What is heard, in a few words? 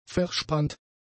"verspannt", German; adjectives